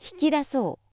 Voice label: female